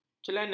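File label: "celana", Malay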